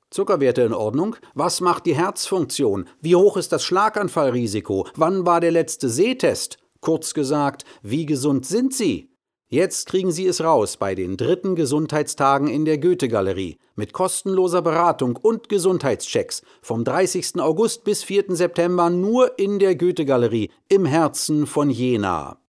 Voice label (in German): Werbung; Goethe Galerie